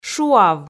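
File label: "шуав", Komi, female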